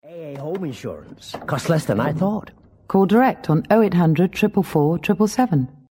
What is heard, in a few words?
Commercial endline; AA